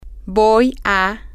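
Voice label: i am going to, Spanish, female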